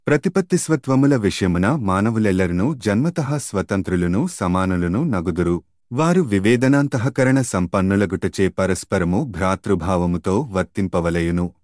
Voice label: Telugu